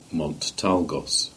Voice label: "Tolgus Mount" Cornish